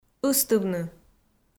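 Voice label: "ыстывны", Komi, female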